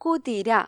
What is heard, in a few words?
"horse", ml; gcompris